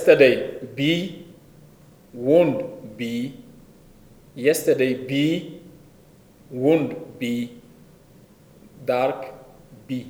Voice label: zarma tone contrast